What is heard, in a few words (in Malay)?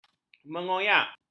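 "mengoyak", Malay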